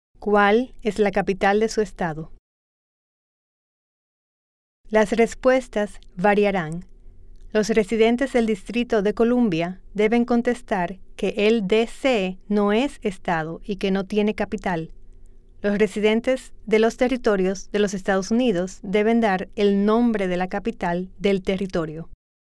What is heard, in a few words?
Spanish